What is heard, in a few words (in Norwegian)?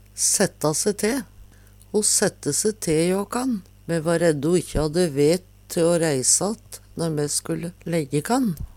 setta se te, Numedalsmål